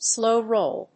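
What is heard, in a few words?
"Slowroll", English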